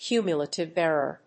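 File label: "Cumulative error", English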